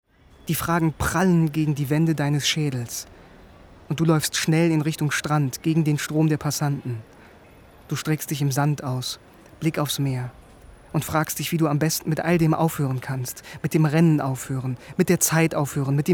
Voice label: hoerspiel; manager am meer; zersplittert

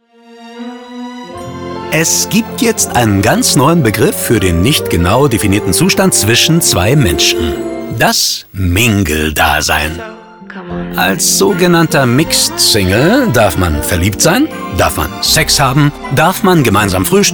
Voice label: Full Mix Mingles, Commercial (Werbung), German

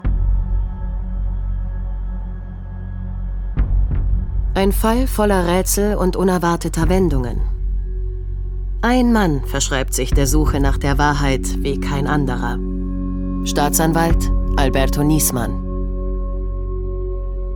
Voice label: Kommentar Doku; ZDF; Tödliche Ermittlungen Wie starb Staatsanwalt Alberto Nisman; LOFT VOICES

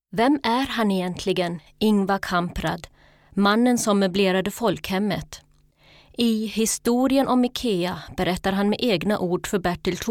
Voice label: schwedisch

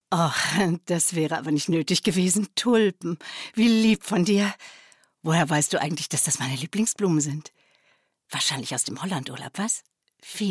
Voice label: synchron

